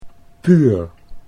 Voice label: "puur", Dutch